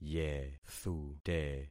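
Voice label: "yethute", concatenated syllables; Affixing experiment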